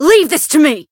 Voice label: Voice variable chant; esta